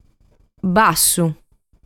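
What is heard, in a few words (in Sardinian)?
"Bassu", Sardinian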